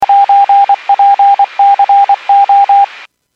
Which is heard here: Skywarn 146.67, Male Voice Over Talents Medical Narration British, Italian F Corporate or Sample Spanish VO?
Skywarn 146.67